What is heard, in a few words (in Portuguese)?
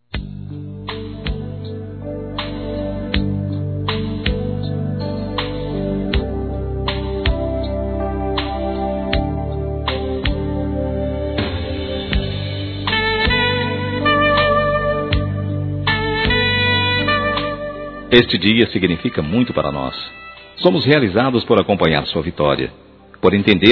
Formatura Masculino; Filho Plural; Telemensagens 2017; Formaturas